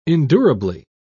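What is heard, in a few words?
"endurably", British English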